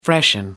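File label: "freshen up", British English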